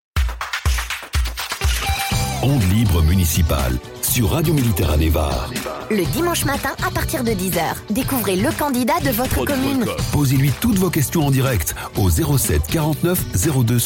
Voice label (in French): Radio Auto promo; Radio Mediterranee Var; Onde Libre Municipale